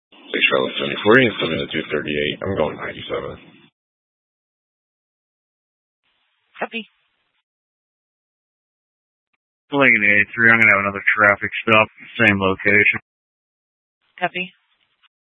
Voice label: Dispatch, scanner